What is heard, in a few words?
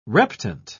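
"reptant", British English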